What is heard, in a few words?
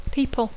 "people", English